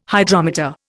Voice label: "Hydrometer", Indian